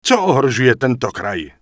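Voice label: Night Elf Male error already in group, Czech; wowpreklad; czwow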